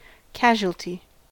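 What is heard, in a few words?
"casualty", US English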